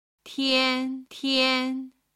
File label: "tian tian", Chinese